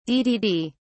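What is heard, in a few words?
"ddd", en, British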